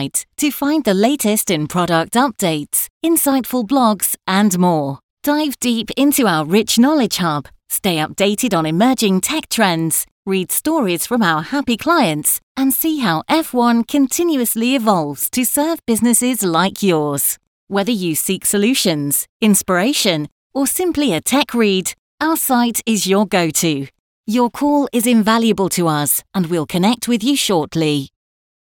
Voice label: Example On Hold Marketing Message Conversational Voice